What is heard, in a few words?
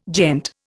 "gent", Indian